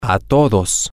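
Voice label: to Everyone, Spanish, male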